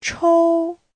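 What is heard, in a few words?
"chōu", Mandarin Chinese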